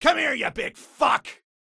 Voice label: Voice Male Late Thirties Swear Come Ere Ya Big Fuck